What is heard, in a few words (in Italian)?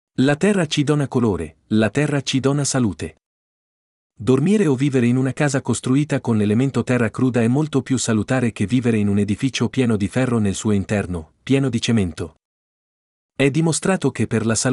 Italiano